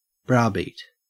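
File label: "browbeat", Australian English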